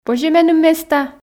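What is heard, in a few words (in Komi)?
позьӧ меным места, Komi, female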